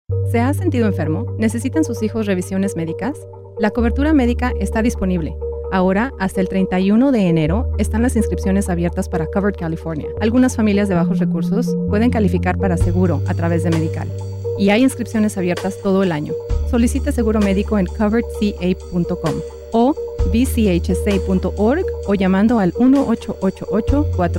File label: Spanish; Medi-Cal